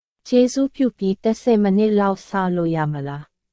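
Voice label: Myanmar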